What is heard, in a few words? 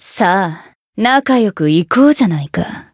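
Voice limit break, Japanese; isuka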